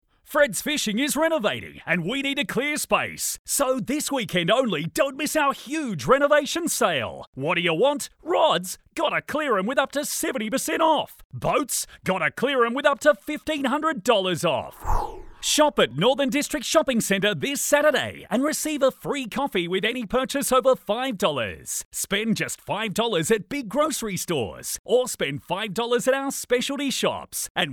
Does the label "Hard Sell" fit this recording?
Yes